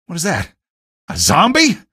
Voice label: alert zombie, male; video games; npc; source engine; episodic; episode 1